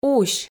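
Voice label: "усь", Komi, female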